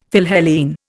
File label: "Philhellene", Indian; Dictionary